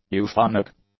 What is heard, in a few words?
"euphonic", English; adjectives